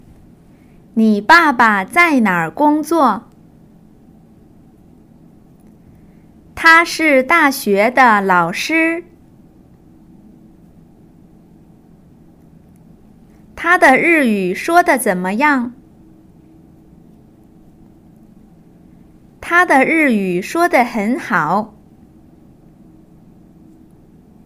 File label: Test of Chinese Proficiency